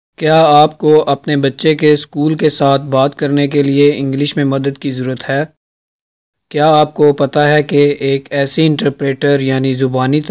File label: urdu; public schools; going to a public school